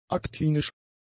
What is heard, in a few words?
"aktinisch", german; adjectives